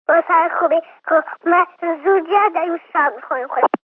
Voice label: Phone Baby, Male, child, Kid rambling, cute, phone, message, speaking, babbling, (human voice); Telephone Voice Sound Effects